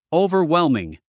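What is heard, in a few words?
"overwhelming", English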